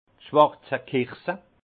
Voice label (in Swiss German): "schwarzi kirsch", alsace; vin; cerise noire